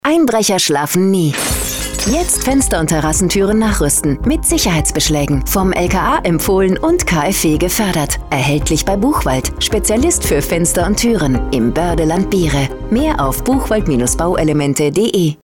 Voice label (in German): Spot; Buchwald Biere Sicherheitsbeschläge